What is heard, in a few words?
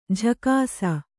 "ಜಾಗಿಸು", kannada